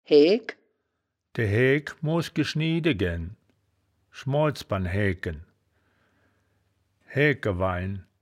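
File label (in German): "haeaegk", wittlicher woerterbuch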